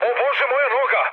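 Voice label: Serbian damaged pilot mood med, speech; War Thunder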